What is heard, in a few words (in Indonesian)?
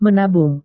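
"menabung", Indonesian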